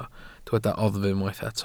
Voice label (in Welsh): "oddf" Welsh